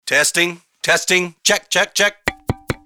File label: Microphone Check Test